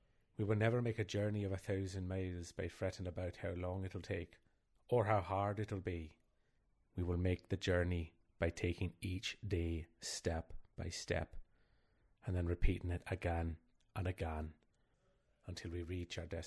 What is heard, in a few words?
Northern Ireland